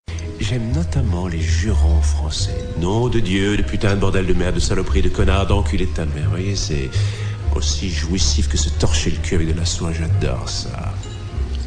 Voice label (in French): Jurons Francais